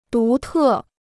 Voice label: "独特", Chinese

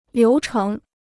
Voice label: "流程", Chinese

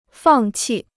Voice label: "放弃", Chinese

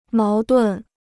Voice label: "矛盾", Chinese

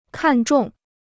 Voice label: "看中", Chinese

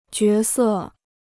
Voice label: "角色", Chinese

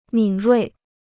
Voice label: "敏锐", Chinese

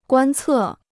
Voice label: "观测", Chinese

